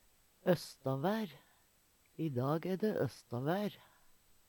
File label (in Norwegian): "østavær", Numedalsmål; I dag æ dæ østavær.; Substantiv inkjekjønn; Vêr og føre